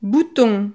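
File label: "bouton", French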